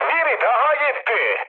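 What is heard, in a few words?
Turkish player killed enemy, mood med, speech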